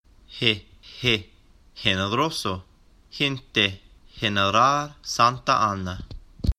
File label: Letra G, el alfabeto español; Trayectos